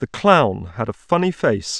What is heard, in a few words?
man